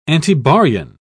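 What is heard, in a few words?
"antibaryon", English, British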